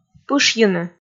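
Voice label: "пышйыны", Komi, female; kpv audio dict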